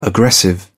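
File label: "aggressive", British English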